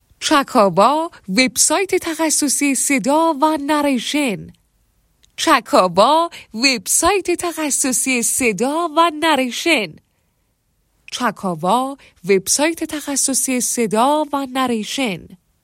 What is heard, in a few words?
tablighati, male